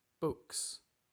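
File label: "books", English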